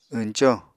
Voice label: "wñco", Apatani